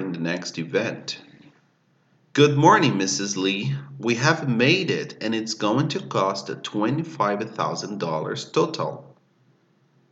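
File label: Departmental Conversation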